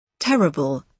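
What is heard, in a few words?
"terrible", British English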